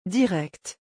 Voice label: "direct", French